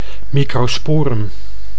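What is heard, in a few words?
"microsporum", Dutch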